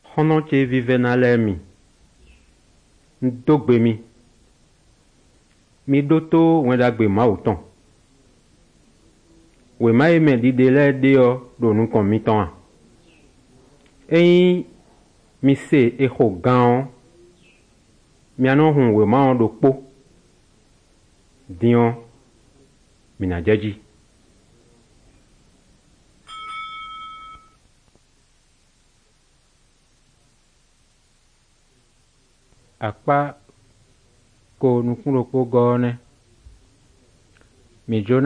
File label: good news, fon fongbe bible drama